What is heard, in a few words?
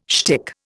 "shtick", Indian